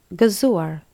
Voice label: "cheers", Albanian